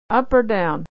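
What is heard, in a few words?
"down", American English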